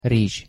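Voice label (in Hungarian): "rizs" Hungarian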